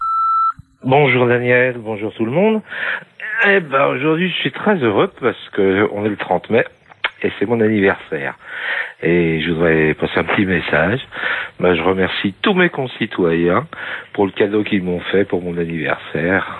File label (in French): repondeur; Victoire du Non